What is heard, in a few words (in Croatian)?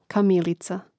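"kamilica", Hrvatski; Mrežnik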